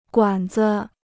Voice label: "管子", Chinese